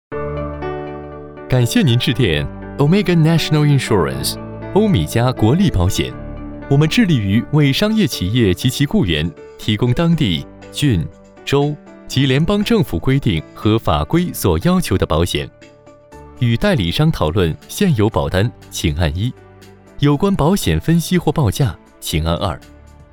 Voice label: 男 中文 IVR语音答复

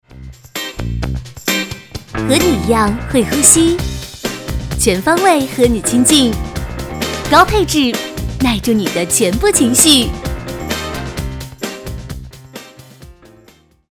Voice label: 女,活力广告; 舒适沙发

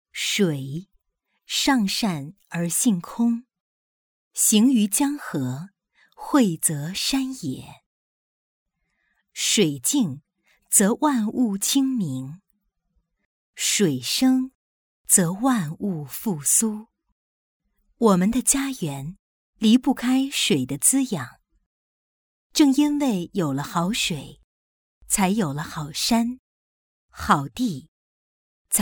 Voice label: 女 专题 水环境

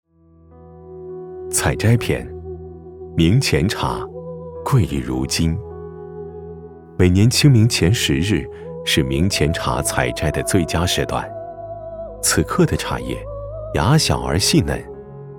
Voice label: 男 广告 茶

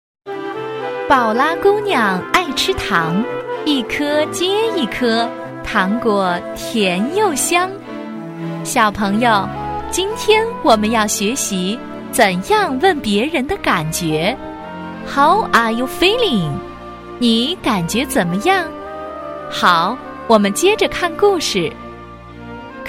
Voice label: 女 有声读物 教育的小样

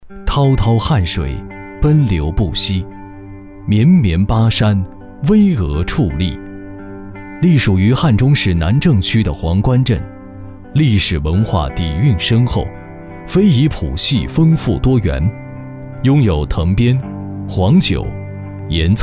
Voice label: 男 纪录片; 南郑区黄官镇

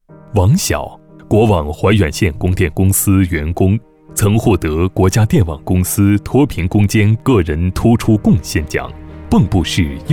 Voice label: 男 专题 平实的人物介绍

